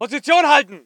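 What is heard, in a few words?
voice german infantry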